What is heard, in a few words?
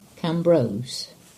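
"Cambrose", English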